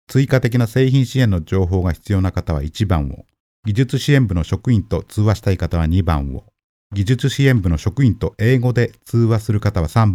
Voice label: Japanese; קריינות